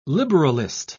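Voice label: "liberalist", British English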